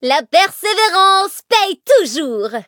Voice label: levelup, fr; Cookie Run